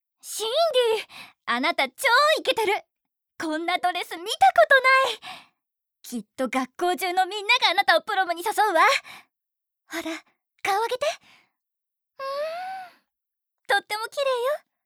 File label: School Girl, 海外のスクールガール